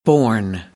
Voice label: "borne", American English; ɔːr - ɔː